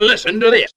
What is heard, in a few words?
listen to this male; Voice; introductions